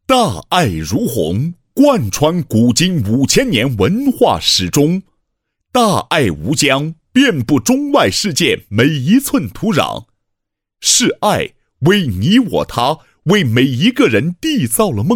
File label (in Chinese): 男, 人物; 大爱如虹